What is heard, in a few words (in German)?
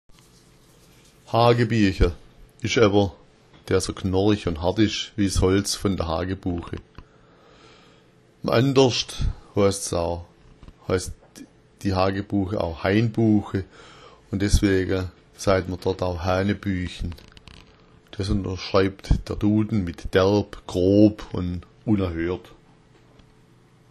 hagebuecha, schwaebisch